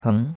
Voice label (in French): "heng2", chinois; Cours-de-Chinois